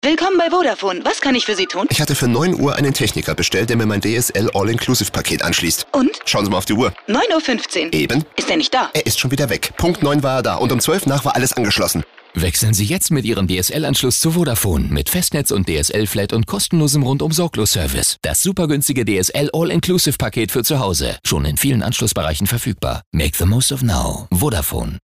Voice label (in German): Sprecherin; WERBUNG; VODAFONE: Anschluss